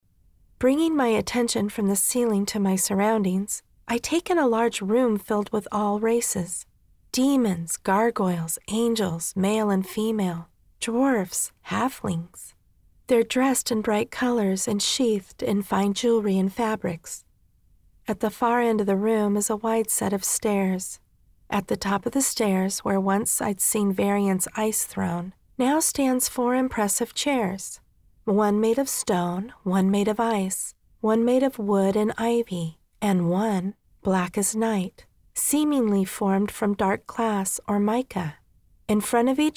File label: Woman sweet and kind